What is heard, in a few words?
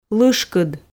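"лышкыд" Komi, female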